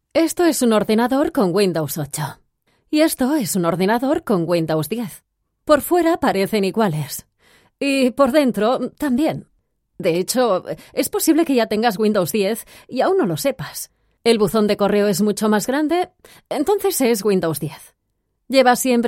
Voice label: spanish corporate videos

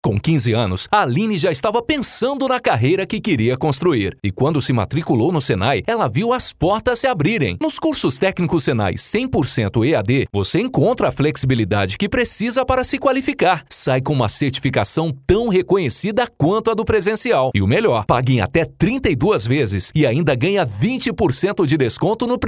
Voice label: portuguese brazilian corporate videos

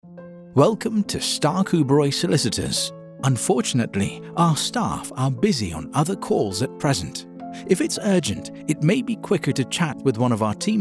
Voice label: english british ivr

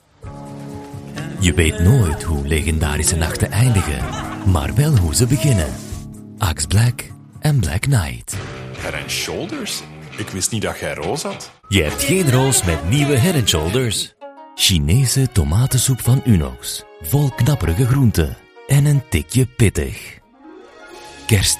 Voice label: Flemish promos

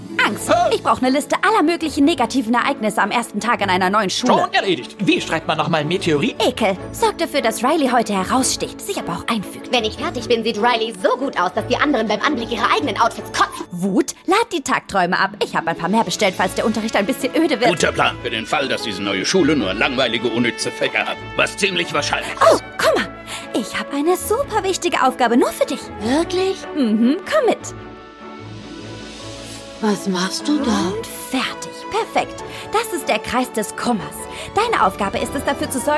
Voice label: german animation

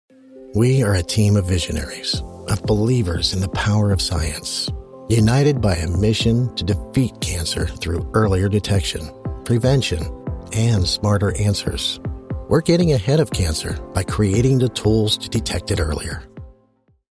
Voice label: english american corporate videos

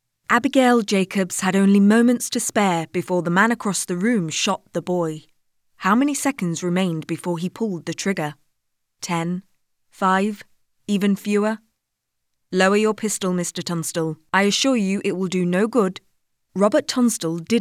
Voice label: english british audiobooks

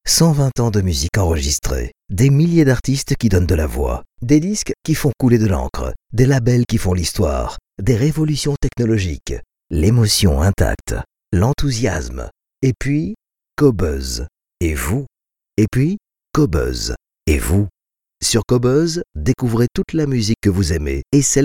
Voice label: french corporate videos

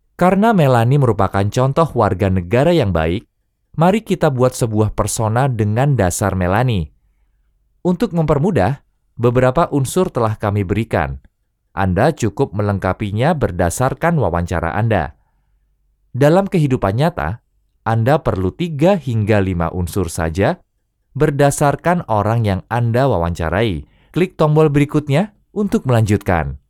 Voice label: indonesian e-learning

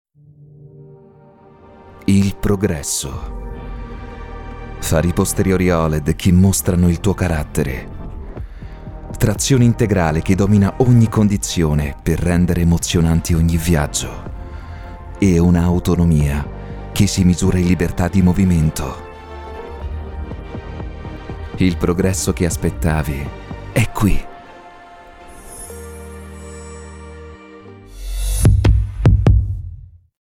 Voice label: italian

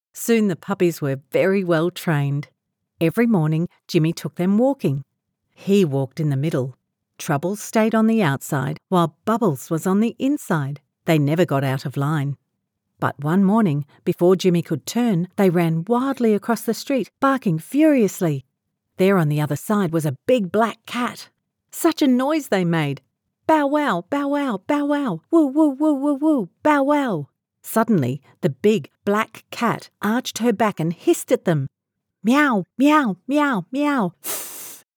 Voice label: english australian audiobooks

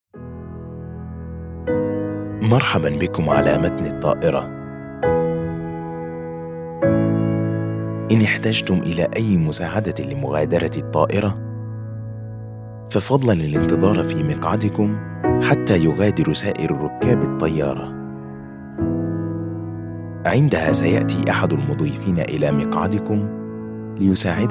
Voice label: arabic e-learning

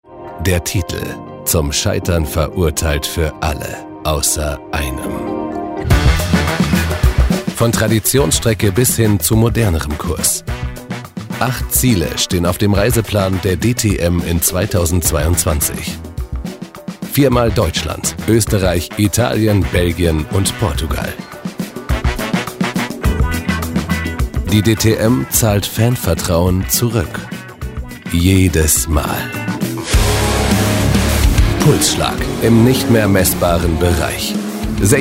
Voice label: german automotive

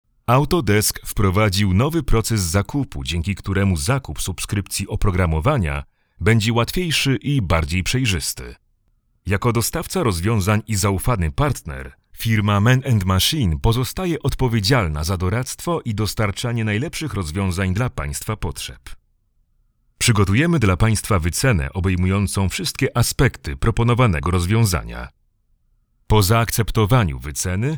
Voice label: polish corporate videos

